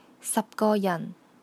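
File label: "go3 yan4", Cantonese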